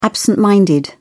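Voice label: "absent minded", English, British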